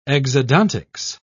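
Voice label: "exodontics", British English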